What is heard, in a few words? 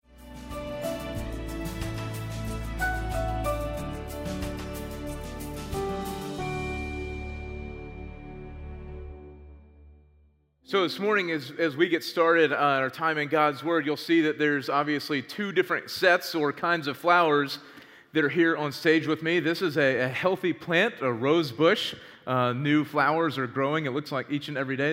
Sermon English